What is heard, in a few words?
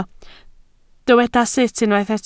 "sut", Welsh